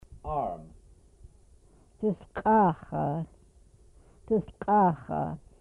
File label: "arm", Twana